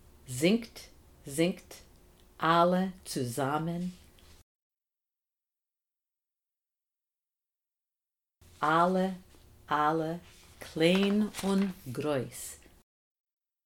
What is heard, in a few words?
Gris pronunciation drill; Listen and repeat